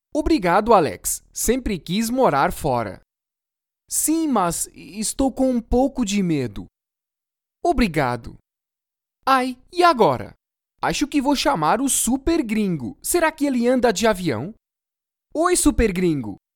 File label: PORTUGUESE